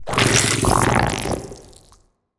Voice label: portrait synthetic; Stellaris; machineage

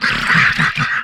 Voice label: humanoid male; Stellaris; humanoids

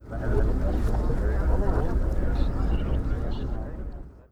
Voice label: conversation; Stellaris

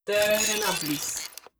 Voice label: robot human female greetings

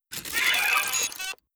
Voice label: robot avian greetings; Stellaris; synthetic dawn

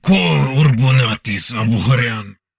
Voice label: humanoid male; Stellaris

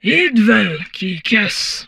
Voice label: humanoid portrait female; Stellaris; humanoids

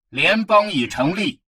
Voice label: advisor notification federation formed, chinese; Stellaris

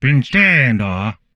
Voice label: humanoid male; Stellaris; humanoids

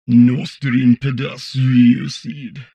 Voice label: humanoid portrait male; Stellaris; humanoids

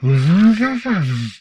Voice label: humanoid portrait male; Stellaris; humanoids

